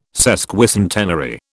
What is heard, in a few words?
"sesquicentenary", British